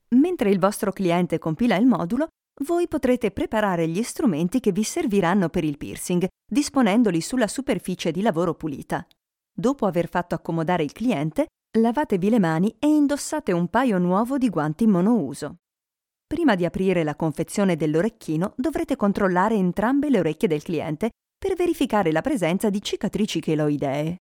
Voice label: italian voiceover corporote training